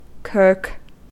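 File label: "kirk", American English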